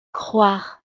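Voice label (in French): "croire", French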